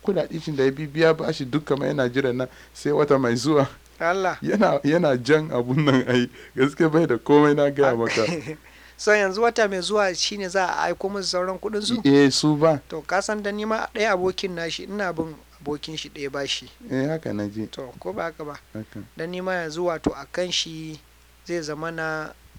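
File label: conversation, Hausa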